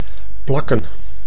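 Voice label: "plakken", Dutch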